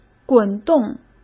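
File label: "滚动", Mandarin Chinese; hsk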